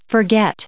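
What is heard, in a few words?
"forget", US English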